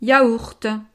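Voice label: "yaourt", French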